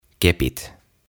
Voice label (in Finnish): "Kepit", "Sticks"